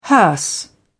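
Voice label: "hearse", British English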